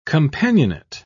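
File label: "companionate", British English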